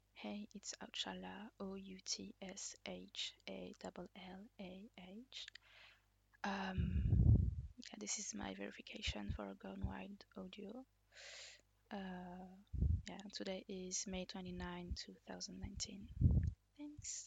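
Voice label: Verification, Female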